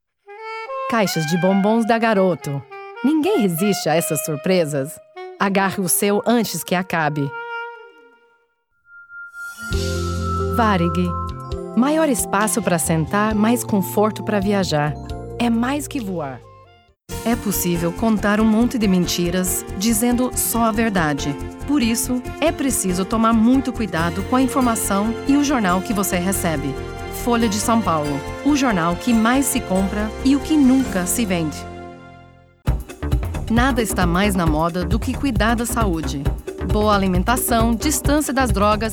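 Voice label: POR BR Commercial, Brazilian Portuguese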